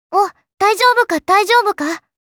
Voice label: "Daijoubu", Kawaii Voice Reactions

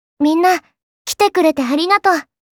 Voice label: "Kitekurete", Kawaii Voice Greeting

